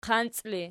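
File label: "qanci" ergative, Archi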